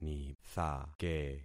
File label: "nithake", concat syllables; Affixing experiment